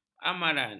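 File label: "amaran", Malay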